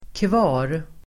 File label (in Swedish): "kvar", Swedish